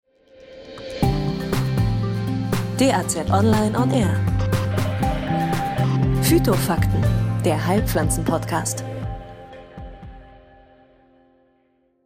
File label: Jingle Podcast; DAZonline